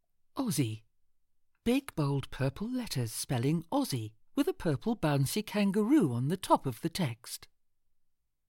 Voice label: audio Description; Aussie UK logo